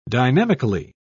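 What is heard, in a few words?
"dynamically", British English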